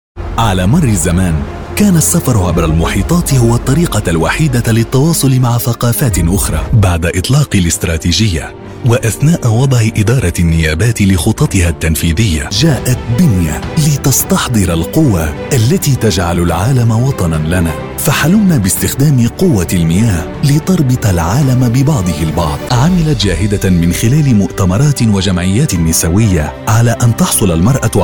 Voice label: MSA NARRATION; NARRATION REEL